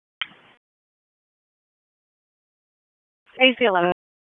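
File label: Police Dispatch, p25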